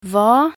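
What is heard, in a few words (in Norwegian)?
"hva", Norwegian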